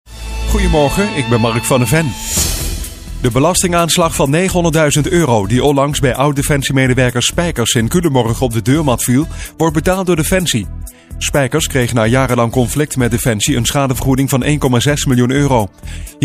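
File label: dutch male narration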